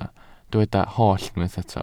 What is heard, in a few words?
"holl", Welsh